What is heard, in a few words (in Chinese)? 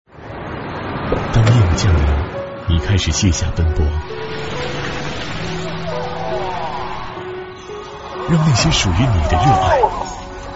男 片头片花; CCTV5-央视2021频欧洲杯